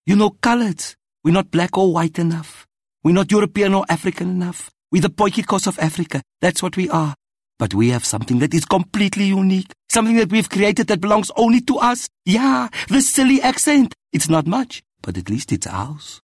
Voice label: Accent Cape Coloured